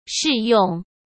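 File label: "适用", Mandarin Chinese; chinese flashcards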